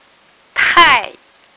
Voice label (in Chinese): "tai4", phonics